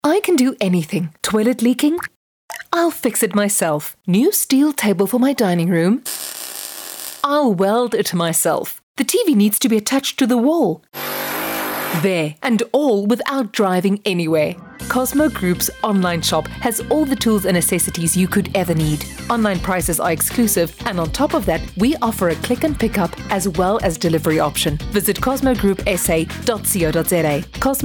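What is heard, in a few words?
ENGLISH, RADIO ADVERTISEMENTS; cosmo shop (eng); VOICE-OVER WORK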